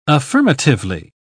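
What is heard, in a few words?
"affirmatively", English, uk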